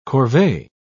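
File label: "corvee", British English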